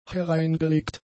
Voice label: "hereingelegt", German; adjectives